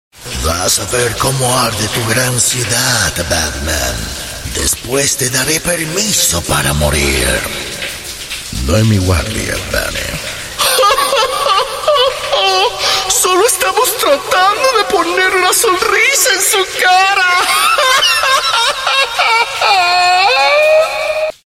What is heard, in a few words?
Latin Spanish Characters; Bane Batman Joker